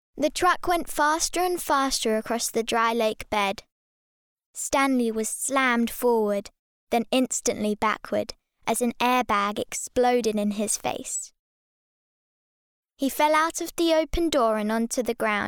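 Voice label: British English, child; voice over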